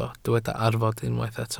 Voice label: "arfod", Welsh